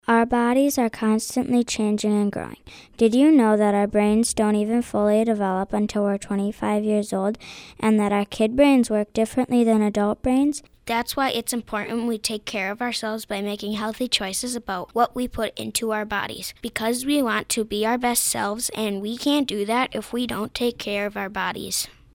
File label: Announcement